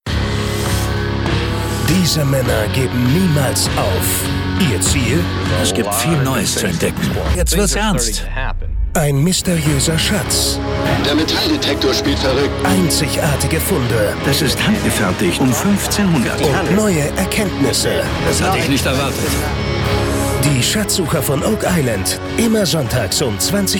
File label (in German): Doku, Trailer, maenner; Kabel1 Doku; Schatzsucher